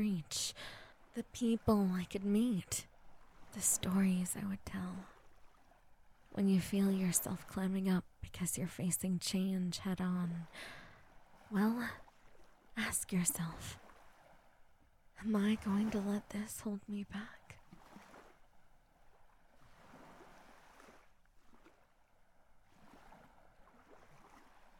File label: Don't Be Afraid Positive Message, asmr